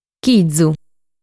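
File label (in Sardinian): "Chizu", Sardinian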